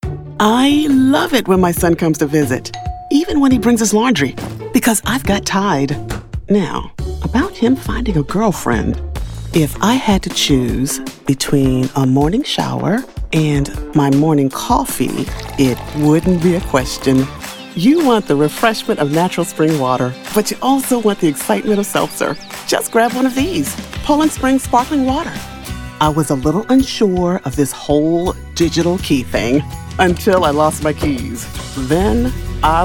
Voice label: Commercial Demo